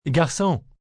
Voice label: Garcon, French, male; Talking; Waiter, foreign